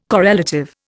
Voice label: "correlative", Indian